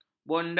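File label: "bonda", Malay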